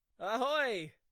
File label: "ahoy", voice